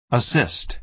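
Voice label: "assist", American English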